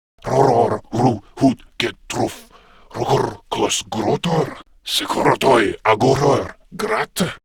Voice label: Patrol, Male

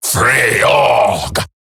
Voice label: Ability Short Cast Eximus Grineer Male; Battle Barks

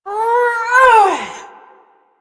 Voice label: Ability Lift, Barks; Warframe; Corpus Lich; Persona D

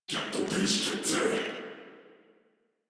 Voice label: Patrol, Battle Barks

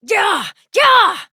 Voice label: Horse Sprint Adult; Duviri

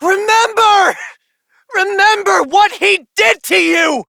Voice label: Operator Voice, en; Warframe; New War

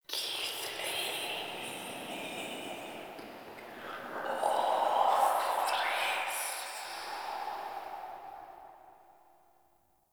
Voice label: Whispers; Inf Hub Lab; Many Lights; Warframe; Ambience; Entrati

